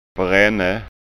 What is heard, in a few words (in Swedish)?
"brenne", skanska ord